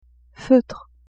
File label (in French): "feutre", French; vetements